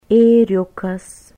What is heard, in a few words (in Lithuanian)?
"eriukas", lithuanian